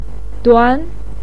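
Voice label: "duan" second tone, Mandarin Chinese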